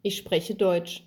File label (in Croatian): njemački; POP UP Zagreb